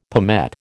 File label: "palmette", American